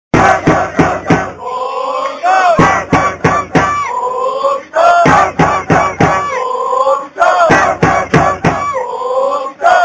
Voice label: goal call